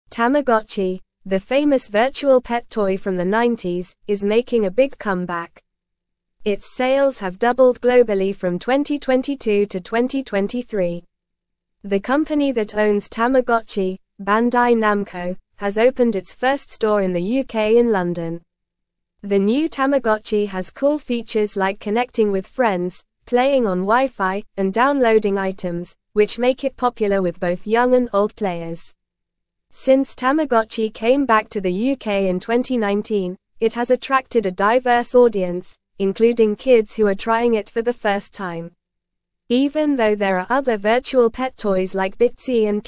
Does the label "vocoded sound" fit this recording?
No